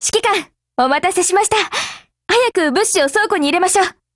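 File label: operation over, Japanese; GF Alarm; Carcano1891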